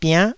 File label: "biann9", taiwanese syllables